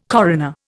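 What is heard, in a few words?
"coroner", Indian English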